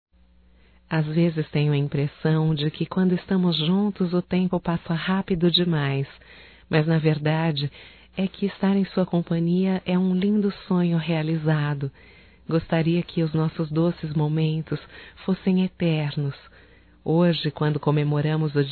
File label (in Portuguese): DIA DOS NAMORADOS, Só Voz, fem; Telemensagens; HOMENAGENS